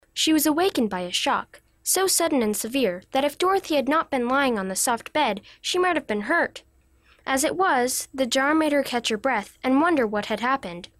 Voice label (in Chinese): 童声女 故事描述